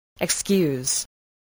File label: "excuse", voice, english